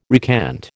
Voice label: "recant", American